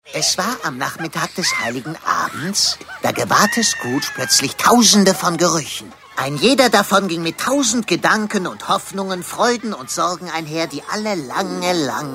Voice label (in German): Charge, maenner; Gonzo